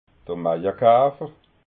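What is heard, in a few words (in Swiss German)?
"maiakafer", Alsatian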